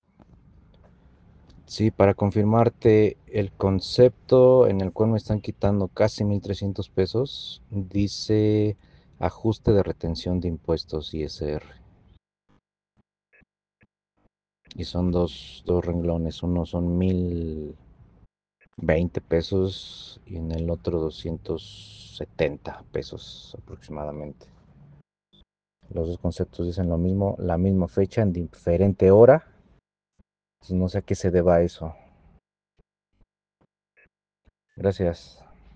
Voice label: whatsapp ptt